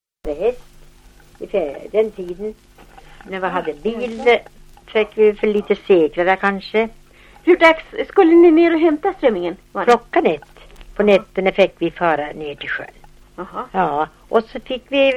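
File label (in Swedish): Älvkarleby Uppland, dialekter; Folkminnen; Svenska sagor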